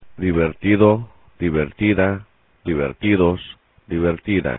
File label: "divertido", Spanish; adj past participle